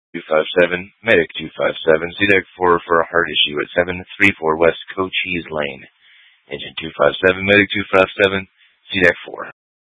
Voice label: FD Dpts VHF 156.240 Patch

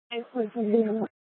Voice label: Animal Control, scanner

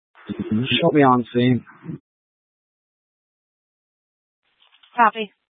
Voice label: Fire Departments, scanner

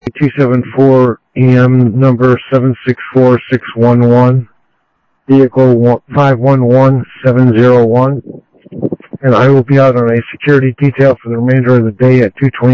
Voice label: Central Sheriff Central, scanner audio trunk; MCSO Central Sheriff-Central; ThompsonPeakScot

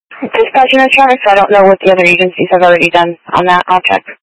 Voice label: Mutual Aid-Intersystem Interop B, scanner

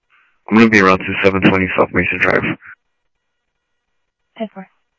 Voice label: Mesa Simulcast, Mesa PD, Police Dep; MESA PD 1 Fiesta; MesaPoliceDep

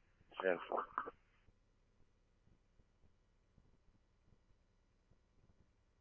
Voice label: scanner, Scottsdale Police

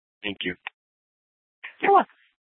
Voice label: Sheriff, scanner P25; Shrf Lakes

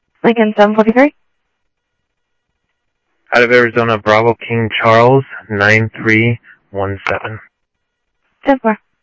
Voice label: South Scottsdale Sheriff, scanner audio

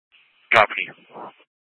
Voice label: Dispatch, scanner; Mesa PD; 4 Superstition Dispatch

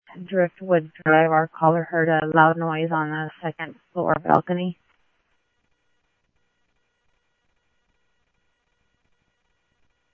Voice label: Tempe Police, scanner; SimulcastF-Tempe

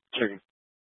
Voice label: Scottsdale Police, Simulcast

